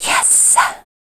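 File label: vocal female, Dance Vocals, spoken words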